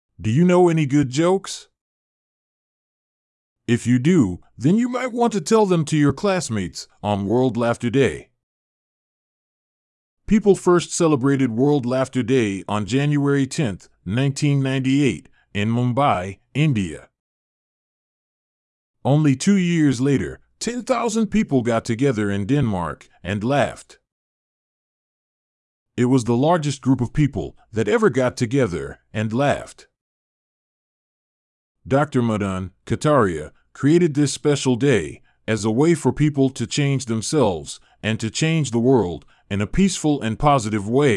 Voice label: USA; world laughter day